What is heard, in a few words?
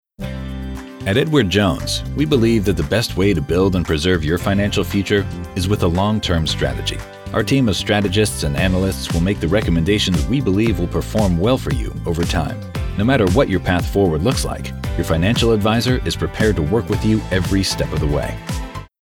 Corporate Warm Serious; Edward Jones